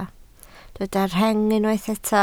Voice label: "rheng", Welsh